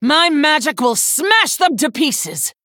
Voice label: female human, Bark

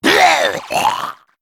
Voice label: Male Sporeling Death

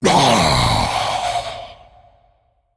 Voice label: Male Demon Death; Archimonde

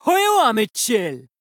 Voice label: Female Troll Play

